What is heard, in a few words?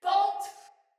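See Player Ready, Soldier Female, Battle Barks; Sinecure; en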